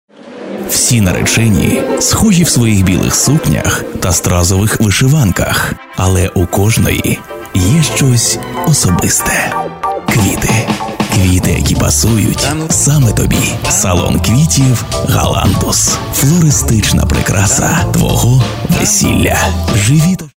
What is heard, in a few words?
ukrainian male voiceover locutor ucraniano